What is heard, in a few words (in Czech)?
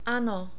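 "ano", czech, male; short vowels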